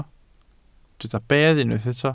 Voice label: "bedd", Welsh